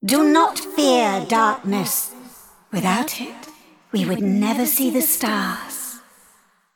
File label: Female Celestial Bark; Celeste